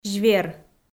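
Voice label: "звер", Komi-Zyrian, female; audio dict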